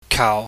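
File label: "kau", Cantonese, low falling tone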